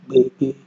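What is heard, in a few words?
"gbege", Nigerian Pidgin, male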